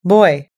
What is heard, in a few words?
"boy", us-english